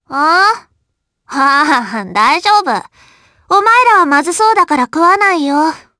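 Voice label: vox dialogue, voices, Japanese; Viska; kingsraid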